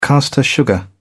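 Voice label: "caster sugar", British English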